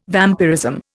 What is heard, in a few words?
"vampirism", Indian